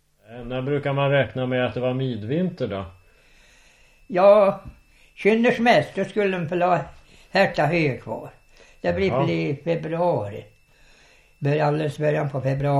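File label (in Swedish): Säfsnäs Dalarna, Svenska sagor, dialekter; Folkminnen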